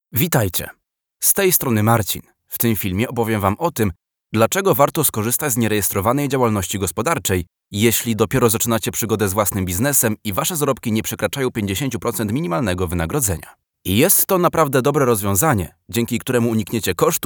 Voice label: Polish, Male